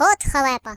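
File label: "Incoming", British English; Default uk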